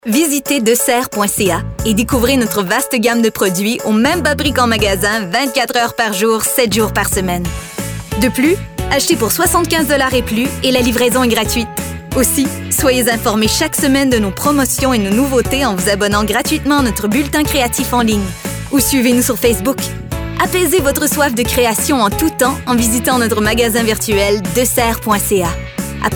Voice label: female French canadian

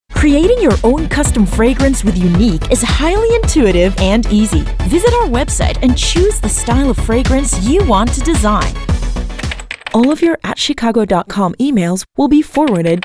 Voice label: Female English American

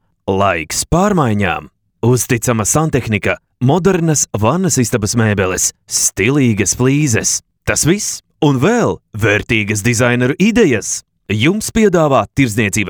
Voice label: male Latvia

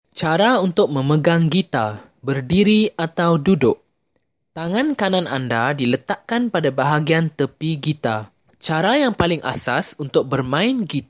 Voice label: male malay

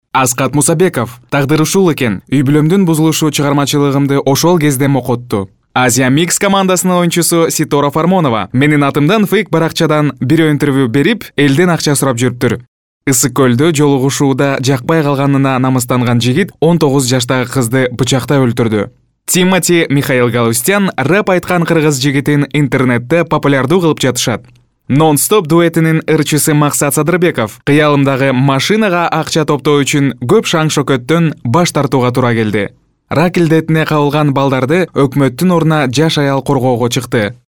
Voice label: male, Kyrgyz

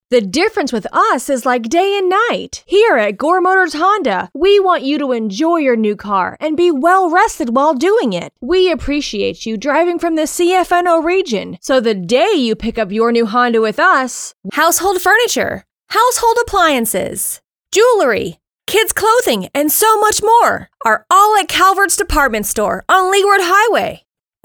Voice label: female, English American

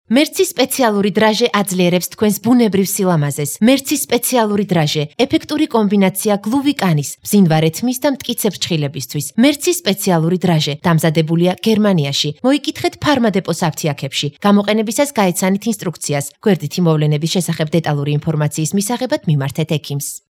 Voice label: female georgia

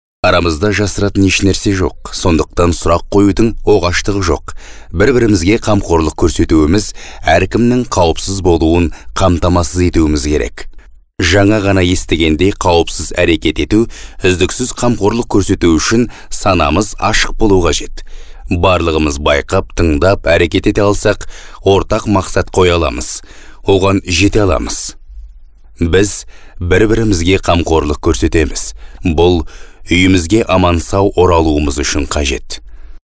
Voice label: male kaz; kazah

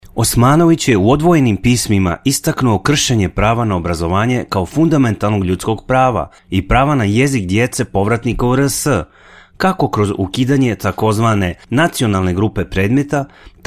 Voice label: male bosnian

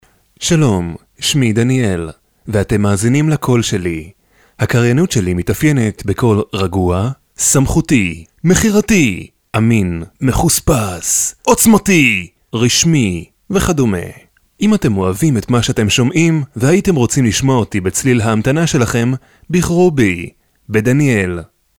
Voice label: male Hebrew

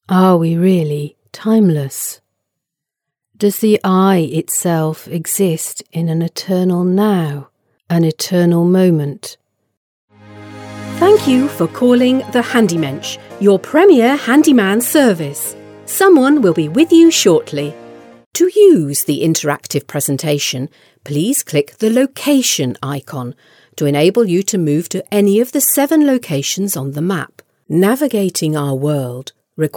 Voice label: female, English British

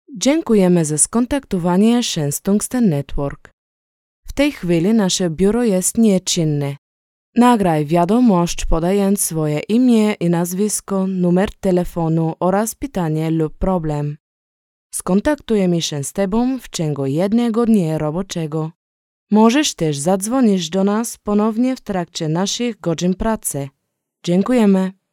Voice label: female polish

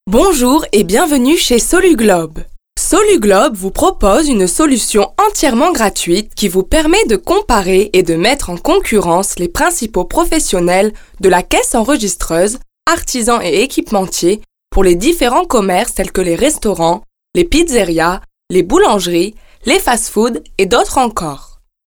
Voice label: female French

